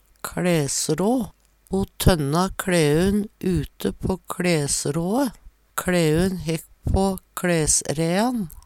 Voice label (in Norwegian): kLæsrå, Numedalsmål; Veggli